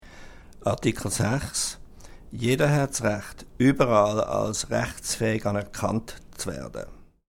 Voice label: Swiss